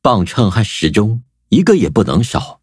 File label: upgrade, zh-hant